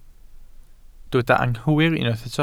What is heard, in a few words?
"anghywir", Welsh